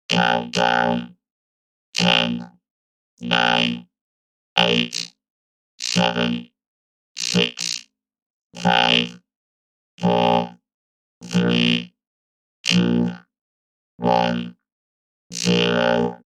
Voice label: Robot Countdown, Countdown from ten to zero in robotic voice.; Sci-Fi Voice